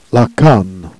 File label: "canne", Jèrriais